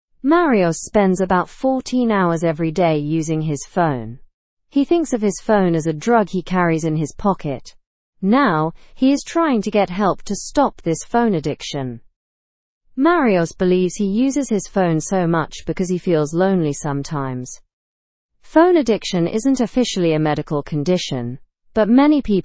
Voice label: english news lower intermediate; rehabilitation for phone addiction growing

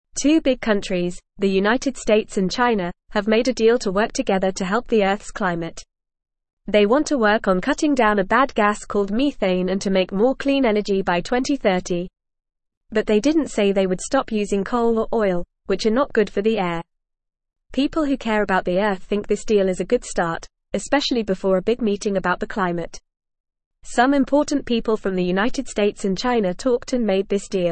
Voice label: English Newsroom Lower Intermediate FAST Reading; America and China Join Forces to Help the Earth

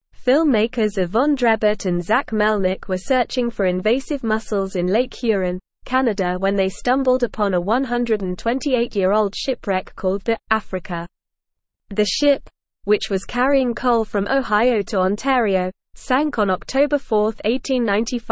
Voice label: English Advanced NORMAL Reading; English Newsroom; Shipwreck of Africa Found Filmmakers Uncover Maritime

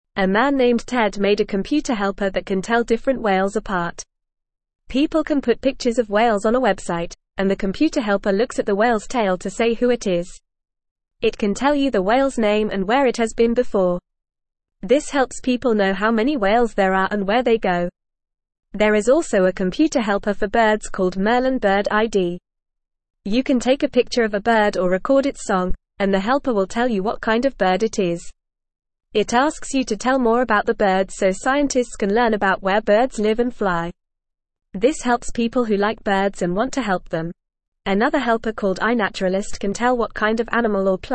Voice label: English Newsroom Beginner FAST Reading; Computer Helpers Identifying Whales Birds and More

